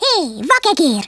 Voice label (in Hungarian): "oi nutter", Hungarian; Worms Armageddon